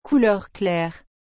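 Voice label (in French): "couleur claire", French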